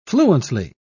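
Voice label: "fluently", British English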